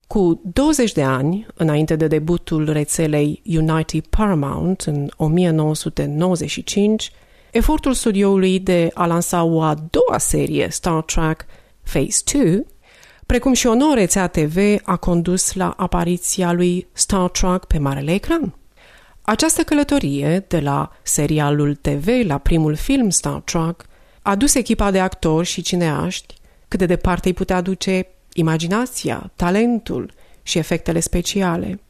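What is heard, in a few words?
Romanian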